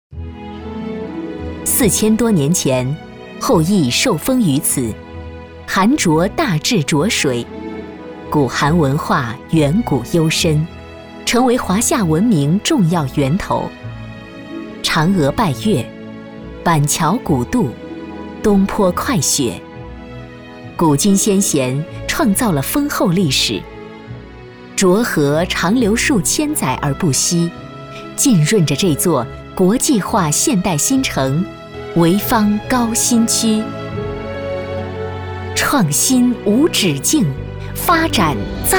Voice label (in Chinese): 专题 政府 高新区 大气